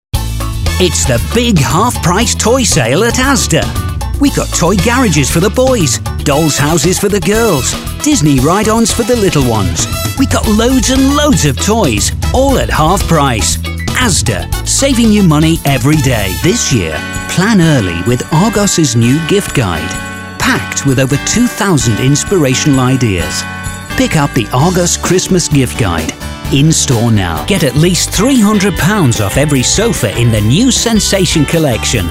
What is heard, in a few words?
COMMERCIALS